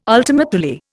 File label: "ultima Thule", Indian